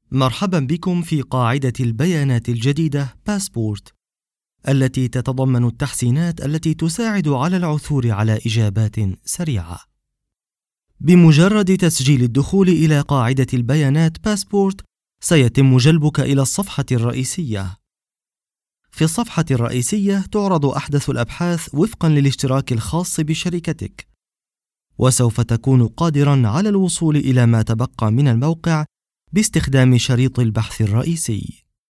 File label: Arabic informative